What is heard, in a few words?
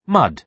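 "mud", British English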